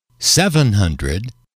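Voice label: "seven hundred", English, male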